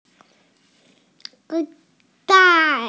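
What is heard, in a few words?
"zai4", Mandarin Chinese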